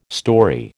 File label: "storey", American